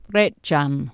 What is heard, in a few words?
"raecan", Anglo Saxon